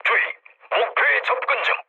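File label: Korean near waypoint mood low; War Thunder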